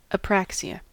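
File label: "apraxia", US English; pronunciations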